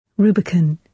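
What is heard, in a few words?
"rubicon", British English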